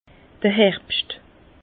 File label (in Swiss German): "herbscht", Bas Rhin; les vendanges; vin